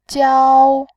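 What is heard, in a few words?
"jiao", Mandarin, first tone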